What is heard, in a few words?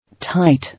"Tight", English